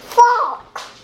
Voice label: "fuck", baby; expletives

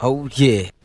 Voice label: "oh yeah", voice

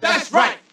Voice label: "that's right", male; Voice

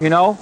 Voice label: male; expletives

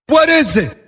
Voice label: what is it, male; questions

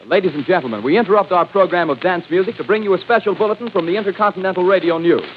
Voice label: "we interrupt this record to bring you", male

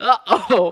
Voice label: "uh oh", Voice; ooh ahh

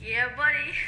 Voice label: "yeah buddy", voice; ooh ahh

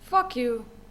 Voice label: "fuck you" female; expletives

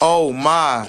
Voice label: "oh my", male; Voice; expletives

